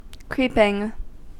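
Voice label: "creeping", American English; pronunciations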